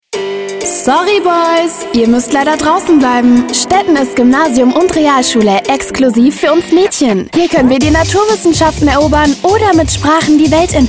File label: Commercial (Werbung), German; Mädchenschule Stetten Funk - 2024; LOFT VOICES